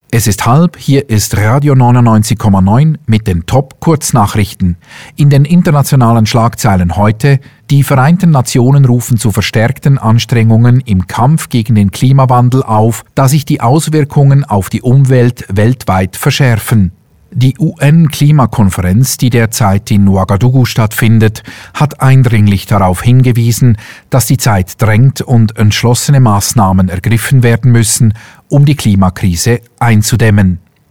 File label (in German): stimme news serioes